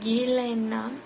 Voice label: goodbye, sinhala

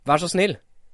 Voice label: please, norwegian

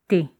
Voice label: no, lingala

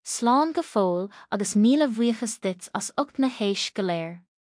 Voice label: so long Irish; Slán go fóill, agus míle bhuíochas duit as ucht na h-éisc go léir; So Long, and Thanks for All the Fish

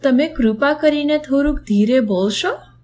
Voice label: slowly, gujarati

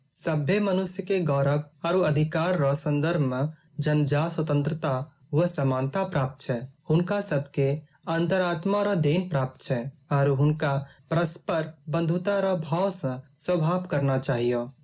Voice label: udhr angika

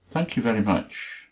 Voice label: "thanks", English

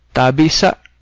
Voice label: excuse me, cebuano

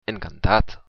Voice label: meet, occitan

